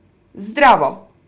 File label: macedonian hello